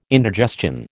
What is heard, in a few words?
"indigestion", American